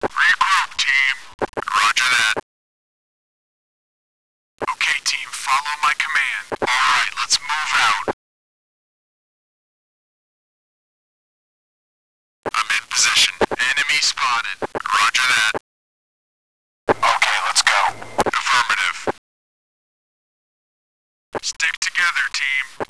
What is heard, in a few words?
radio chat; svencoop